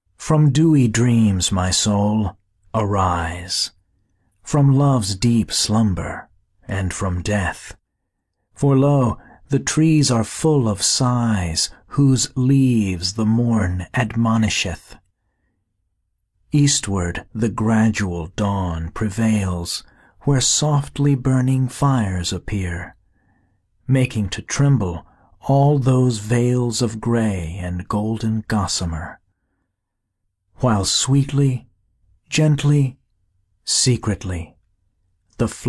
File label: english pronunciation